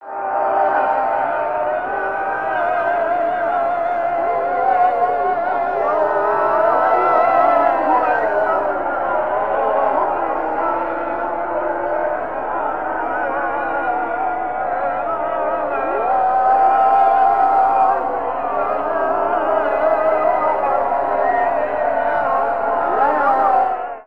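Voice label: church muezzin; pray church; best service Blue Box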